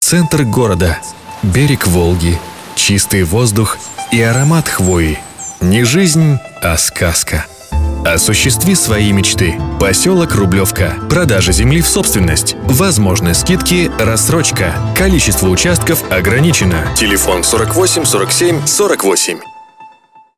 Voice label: Информационный ролик; Поселок Рублевка

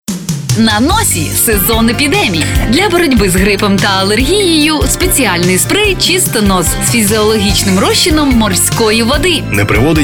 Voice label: Реклама Ролик; Чистонос

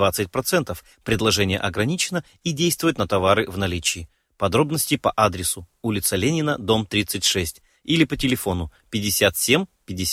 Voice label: Реклама Магазина автозапчастей

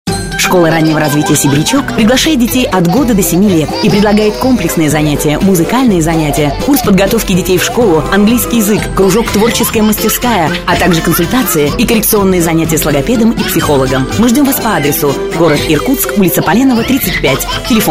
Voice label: Озвучка (Мелодия)

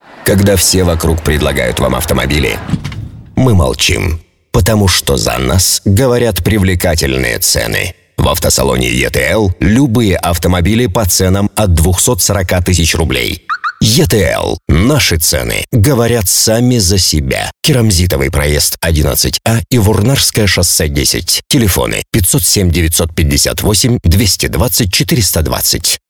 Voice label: Информационный ролик - автосалон

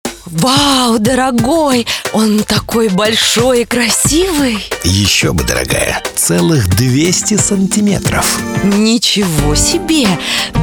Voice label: Аудиоролик на радио

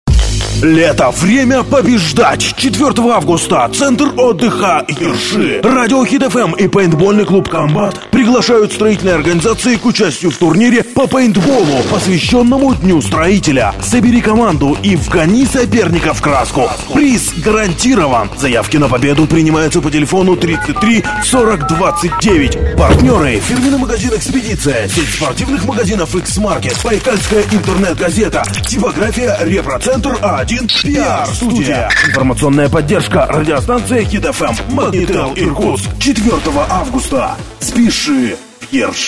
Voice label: Озвучка к видео; Могител

